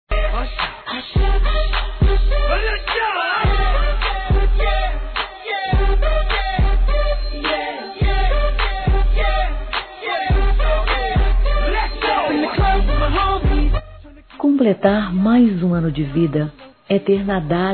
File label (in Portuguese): NEUTRO PLURAL F; Aniversários; Aniversários diversos; fem